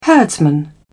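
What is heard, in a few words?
"herdsman", British English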